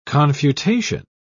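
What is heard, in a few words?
"confutation", British English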